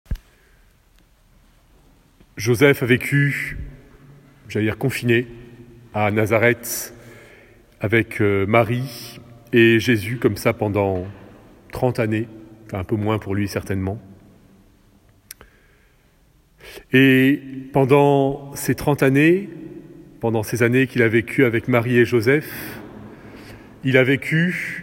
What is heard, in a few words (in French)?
homélie; Saint Joseph homme intérieur